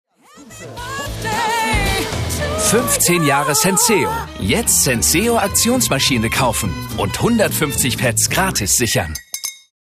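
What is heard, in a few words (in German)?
Werbung, Freundlich; Senseo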